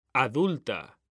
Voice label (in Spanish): "ADULTA", H; mekanta